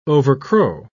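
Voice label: "overcrow", British English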